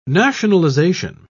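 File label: "nationalisation", British English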